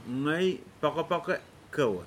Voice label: "I love you", Pingelapese